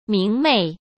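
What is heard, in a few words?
"明媚", Mandarin Chinese; hsk; chinese-flashcards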